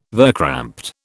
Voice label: "verkrampte", British

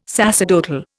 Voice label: "sacerdotal", Indian

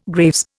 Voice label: "Graves", Indian

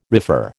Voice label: "refer", American

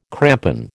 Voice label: "crampon", American

